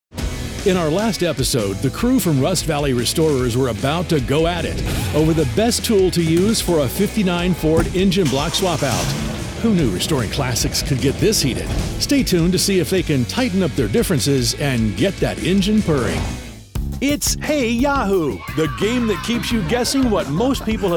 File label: Live Announcer; Demo